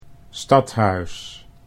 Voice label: "stad HUIS", Dutch